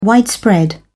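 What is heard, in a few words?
"widespread", British English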